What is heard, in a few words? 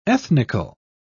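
"ethnical", British English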